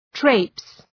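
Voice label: "traipse", English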